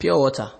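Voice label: "pure water", Nigerian Pidgin, male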